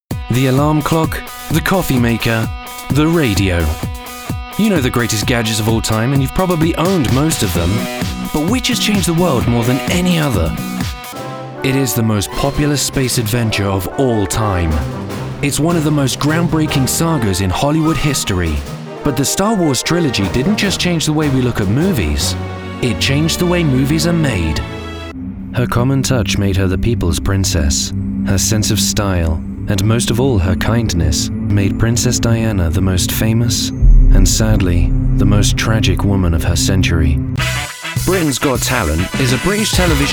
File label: Narration